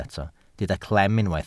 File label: "clem", Welsh